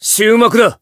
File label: finish jp; claude